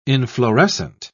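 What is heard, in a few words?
"inflorescent", British English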